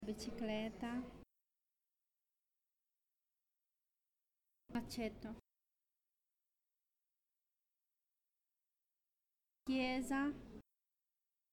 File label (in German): Zusammengefugte Worter Langsam Italienisch